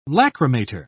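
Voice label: "lachrymator", British English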